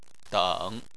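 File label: "deng" third tone, Chinese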